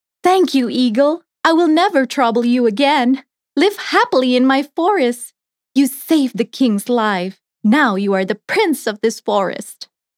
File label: Character ENGLISH